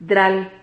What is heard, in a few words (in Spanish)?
"dral", Silabas